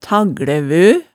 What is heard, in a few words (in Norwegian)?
"tagglævø", hemsedalsmaalet tale